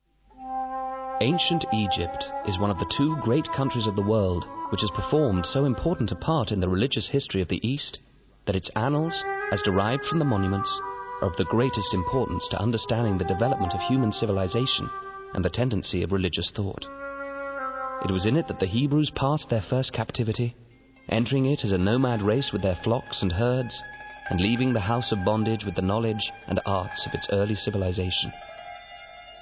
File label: Narration